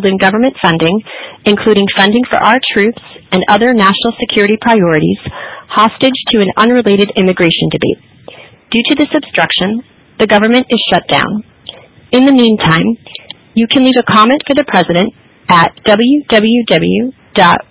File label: White House voice mail